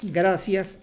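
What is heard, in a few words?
"gracias", Mexican Spanish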